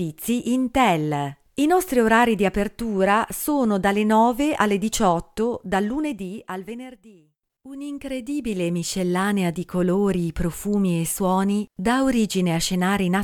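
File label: Italian, General; GoLocalise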